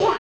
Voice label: "Ow!", Female

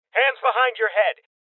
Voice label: Voices Order To Surrender Male Young; rebel cops 2019 gamerip

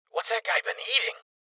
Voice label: Voices Heavy Spotted Male

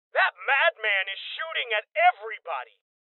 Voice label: Voices Male Young; rebel cops 2019 gamerip

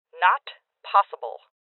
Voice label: Voices Refuse Female; Rebel Cops

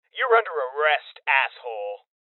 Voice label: Voices Order To Surrender Male Young

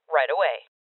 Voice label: Confirmation Female

